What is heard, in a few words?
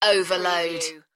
"Overload", UK female; beanstalk audio